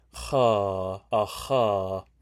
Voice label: Voiceless uvular fricative trill ʀ̝̊